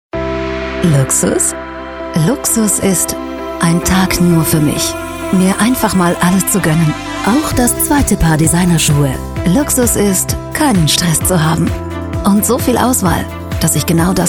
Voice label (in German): Basisspot weiblich